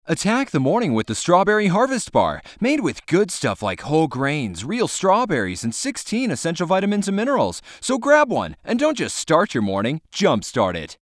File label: commercial